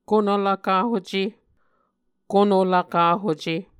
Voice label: "kono lvkahuce", Muscogee; Mvskoke Words with Voicing Consonants; Martin, Jack B., and Margaret McKane Mauldin. 2023. A Dictionary of Creek/Muskogee; SIL International